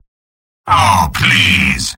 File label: negative vocalization; Spy; mvm